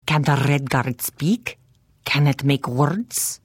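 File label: Hello, Khajiit female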